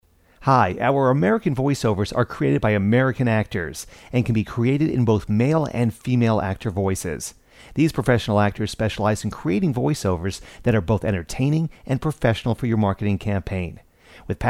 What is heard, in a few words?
American Male